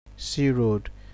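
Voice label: "see road", Nigerian Pidgin, male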